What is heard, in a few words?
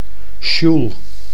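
"sjoel", Dutch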